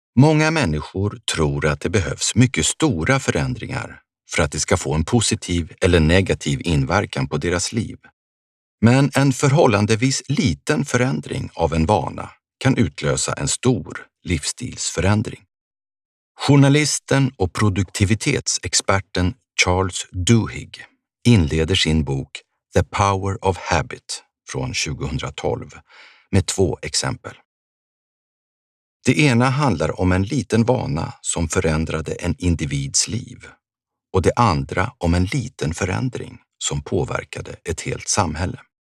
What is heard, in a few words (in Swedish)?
berattare fackbok pedagogisk tydlig